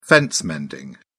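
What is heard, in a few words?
"fence mending", English, British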